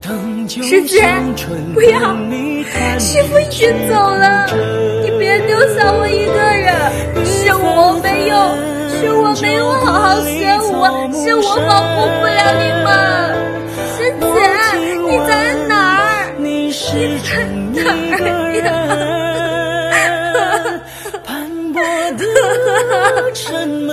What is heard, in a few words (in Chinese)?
哭腔演绎